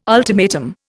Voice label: "ultimatum", Indian